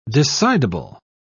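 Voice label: "decidable", English, British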